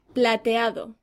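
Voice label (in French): "argente", French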